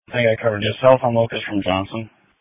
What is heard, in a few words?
Police Tactical Encrypted